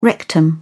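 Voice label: "rectum", British English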